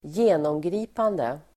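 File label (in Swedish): "genomgripande", Swedish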